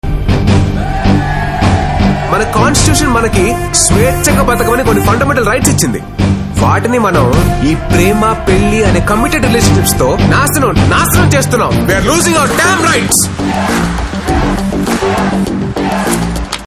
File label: Trailer Dialogue Ringtone, Telugu; Sai Dharam Tej; Solo Brathuke So Better